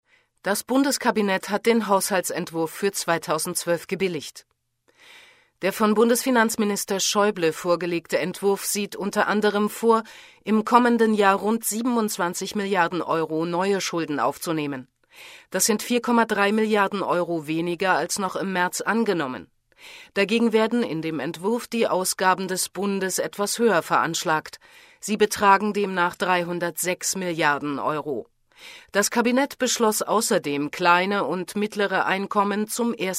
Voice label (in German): Nachrichten; LOFT VOICES